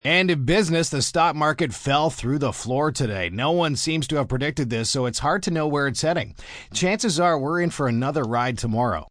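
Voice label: News Stocks M, Broadcast, news, male news item on business, stock market crash.; human voice speaking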